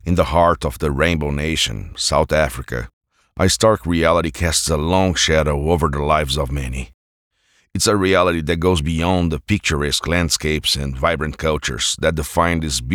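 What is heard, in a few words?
Brazilian Portuguese, male, English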